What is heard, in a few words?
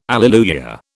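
"alleluia", British English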